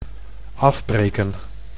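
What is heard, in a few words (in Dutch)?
"afbreken", Dutch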